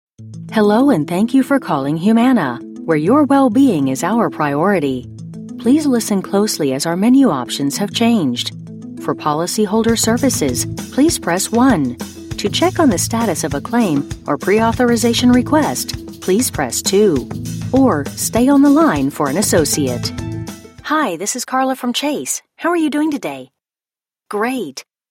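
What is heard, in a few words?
American English telephony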